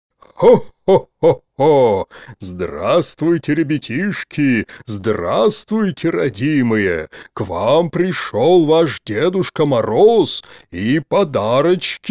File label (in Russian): пародия. Дед Мороз